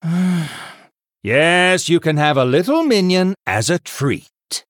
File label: Male Human